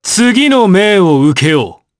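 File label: Vox Victory, Japanese; Roman; kingsraid audio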